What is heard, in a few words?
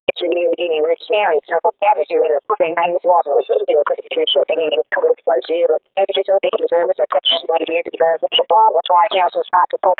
ais talking on gibberli